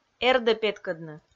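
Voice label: "эрдӧ петкӧдны", Komi, female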